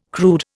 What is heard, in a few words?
"crude", Indian